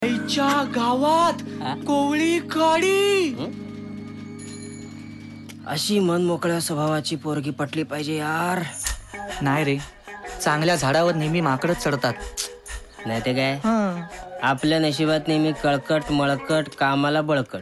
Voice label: Dialogue Marathi Ringtone